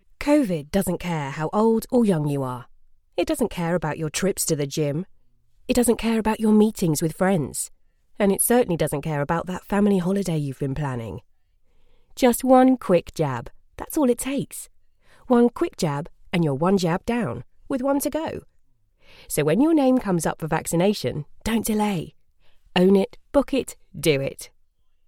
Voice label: female commercial voiceover warm clear